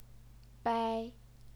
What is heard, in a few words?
"bai1", Chinese pinyin